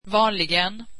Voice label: usually, swedish

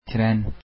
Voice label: train, turkish

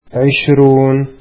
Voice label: arabic twenty

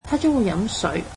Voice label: cantonese she will drink water

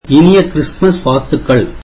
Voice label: tamil Merry Christmas

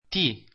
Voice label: italian alphabet t

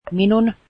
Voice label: finnish my

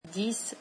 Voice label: "ten", French

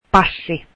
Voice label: passport, finnish

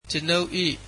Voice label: "my", Burmese

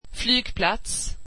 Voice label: swedish airport

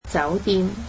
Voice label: "hotel", Cantonese

